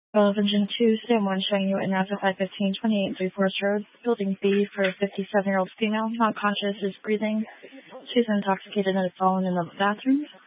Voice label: Fire Department Dispatch

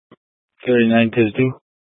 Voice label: Police Dispatch, scanner; Police 1 Dispatch

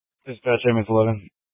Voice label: Fire Department Dispatch

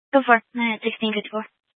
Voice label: Sheriff Dispatch; Mesa County

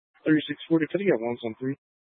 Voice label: Police Dispatch, scanner; Police 1 Dispatch

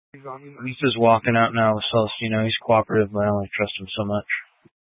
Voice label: Incident Tac 3, scanner

